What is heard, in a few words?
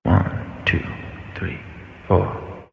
1 2 3 4 brit guy whispered; numbers and letters